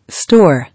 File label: "Store", English